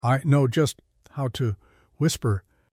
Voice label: whisper